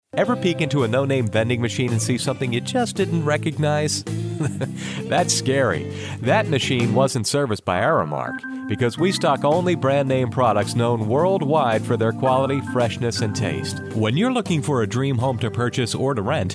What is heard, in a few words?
male